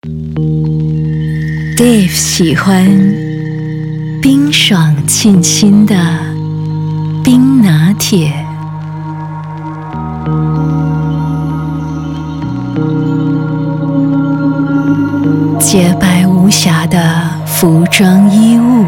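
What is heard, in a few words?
MANDARIN; Panasonic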